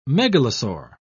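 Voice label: "megalosaur", British English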